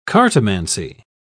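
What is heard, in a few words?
"cartomancy", English, British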